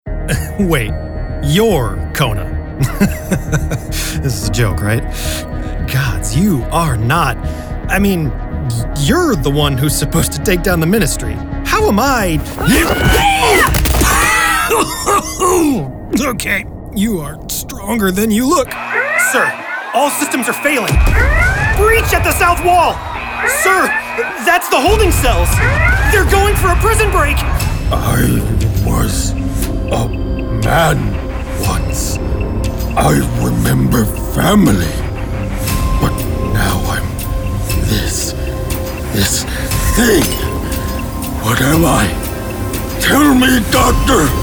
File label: vo interactive